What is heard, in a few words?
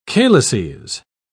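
"calices", English, British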